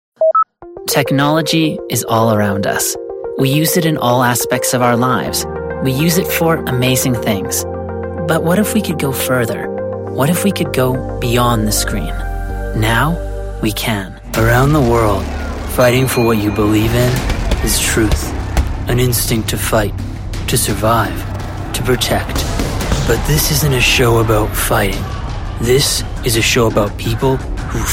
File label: english canadian narration